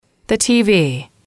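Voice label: "the tv", English, female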